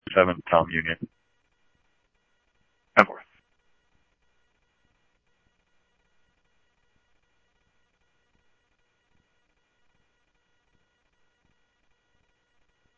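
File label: police department, scanner audio trunk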